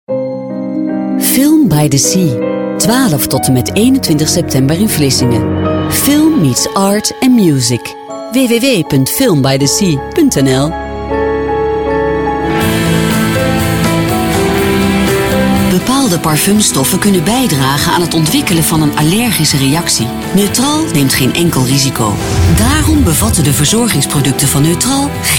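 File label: Dutch, female, narration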